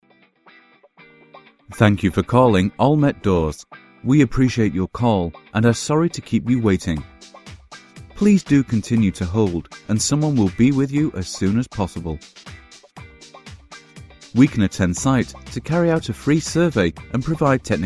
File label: male